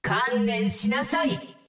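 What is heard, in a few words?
Japanese; 守望先锋2; 奥丽莎; 终极技能; 日语; 観念しなさい！; 接受命运吧！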